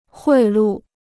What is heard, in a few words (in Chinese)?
"贿赂", Chinese